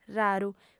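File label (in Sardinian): "raru", female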